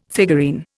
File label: "figurine", Indian